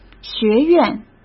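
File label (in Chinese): "学院", Mandarin Chinese; hsk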